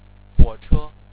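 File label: "huo3che1", Chinese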